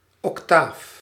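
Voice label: octaaf, Dutch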